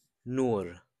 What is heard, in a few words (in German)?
Arabisch Licht